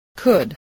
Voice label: "could", voice spelling